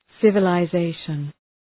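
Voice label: "civilization", English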